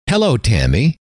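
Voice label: hello, male; trainer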